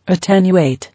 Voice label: "Attenuate", English